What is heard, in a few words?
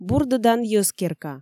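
Komi, female; бурдӧдан йӧзкерка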